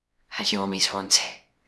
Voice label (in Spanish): Voice Acting es F0